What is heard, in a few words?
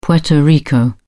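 "puerto rico", British English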